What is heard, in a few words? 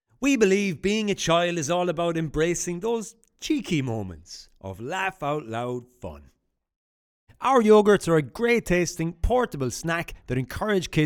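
English Irish male yogurth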